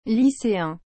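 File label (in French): "lyceen", French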